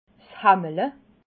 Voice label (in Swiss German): "hammele", alsace